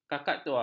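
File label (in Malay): "kakaktua", Malay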